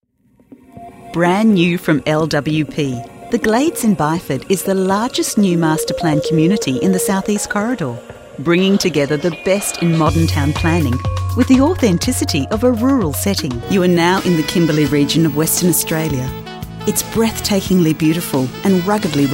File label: Corporate